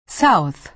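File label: "south", American English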